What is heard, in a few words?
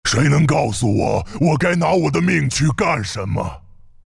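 health up, Chinese; Husk; Dota 2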